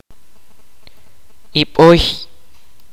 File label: "armadillo", kaqchikel